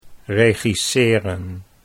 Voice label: "regisseren", Dutch